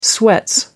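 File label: "sweats", British English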